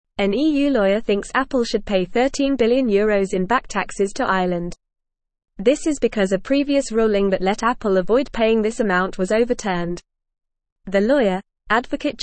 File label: English Upper Intermediate FAST Reading; EU Adviser Recommends Overturning Ruling on Apples; English Newsroom